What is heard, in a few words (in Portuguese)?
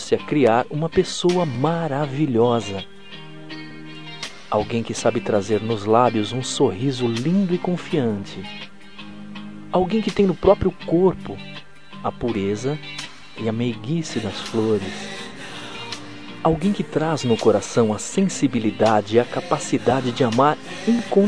ROM DATA SIGNIFICATIVA MASC